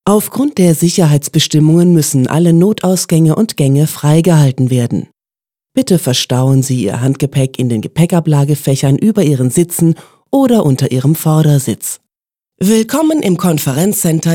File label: IVR Safety Instructions Mix